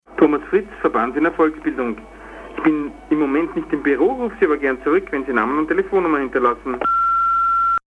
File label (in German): Anrufbeantworter